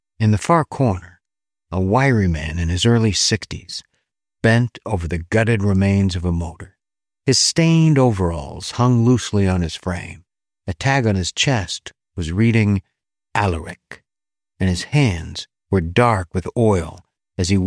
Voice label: Whispers